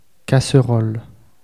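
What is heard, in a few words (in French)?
"casserole", French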